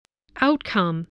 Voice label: "outcome", English voice